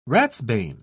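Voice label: "ratsbane", British English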